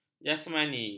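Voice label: "jasmani", Malay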